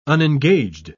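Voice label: "unengaged", British English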